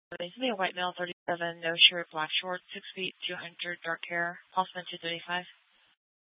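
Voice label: police department dispatch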